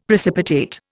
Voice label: "precipitate", Indian; Dictionary

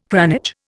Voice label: "cranage", Indian

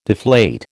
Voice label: "deflate", American

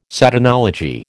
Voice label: "Satanology", American

